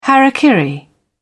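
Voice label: "hara kiri", British English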